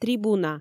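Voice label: "трибуна", Komi, female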